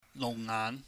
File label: "luhngngaahn", Cantonese